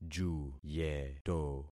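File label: "juyeto", concatenated syllables; Affixing experiment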